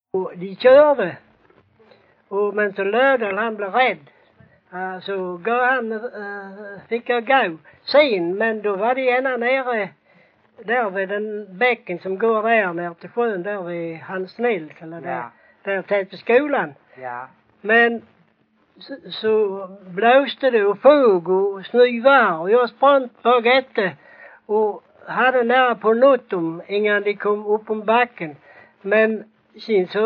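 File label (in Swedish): Bosjökloster Skåne, dialekter; Folkminnen; Svenska sagor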